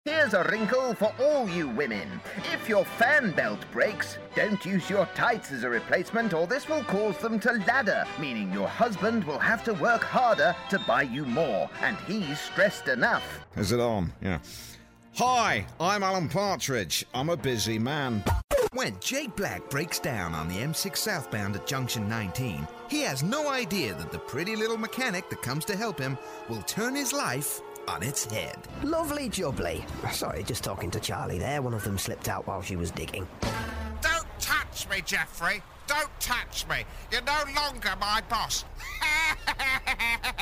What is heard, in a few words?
Character UK